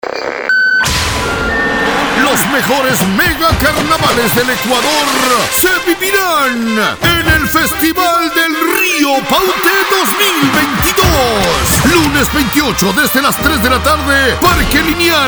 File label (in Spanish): PROMOCIONAL; CARNAVAL PAUTE 2022